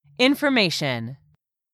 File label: "INFORMATION", english; 3000 words